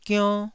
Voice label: "gionn1", Taiwanese

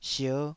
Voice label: "sier5", taiwanese Syllables

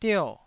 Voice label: "dio4", Taiwanese syllable

